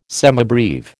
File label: "semibreve", American